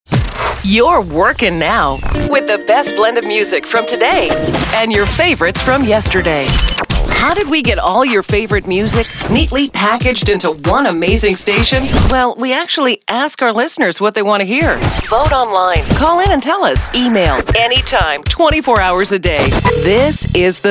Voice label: Imaging; AC Hot AC